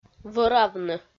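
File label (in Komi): "вӧравны", Komi, female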